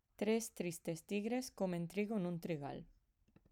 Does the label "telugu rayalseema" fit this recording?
No